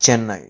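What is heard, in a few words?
"Chennai", Tamil; india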